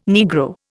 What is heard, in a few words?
"Negro", Indian